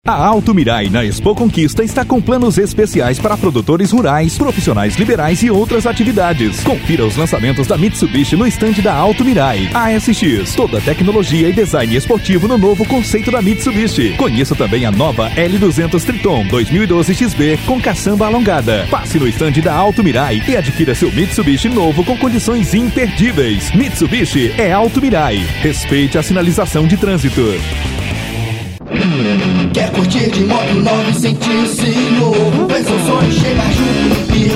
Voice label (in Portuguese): locutor